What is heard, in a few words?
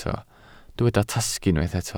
"tasg", Welsh